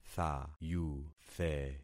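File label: "thayuthe", concatenated syllables; Affixing experiment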